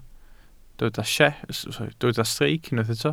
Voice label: "streic", welsh